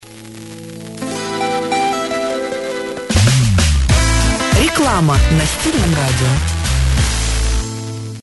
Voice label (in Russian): Reklama na Stilnom radio